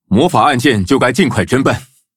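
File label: level up, Traditional Chinese; cookie run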